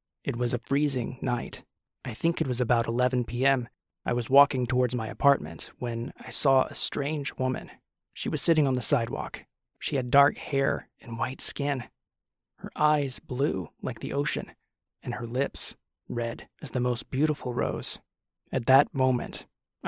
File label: audiobook